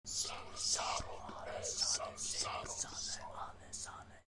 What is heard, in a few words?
demonic whisper